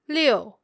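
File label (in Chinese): "liu", Mandarin Chinese, female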